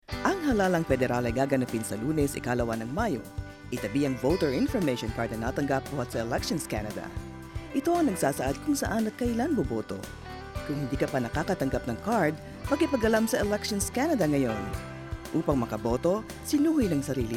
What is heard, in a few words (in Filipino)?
tagalog